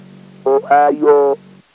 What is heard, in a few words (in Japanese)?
"ohayo", speech